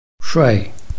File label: "shui4", Chinese